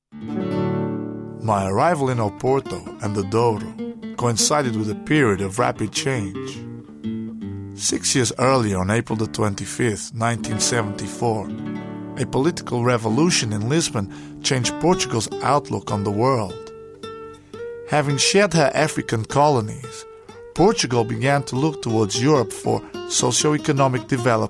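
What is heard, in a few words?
Portuguese English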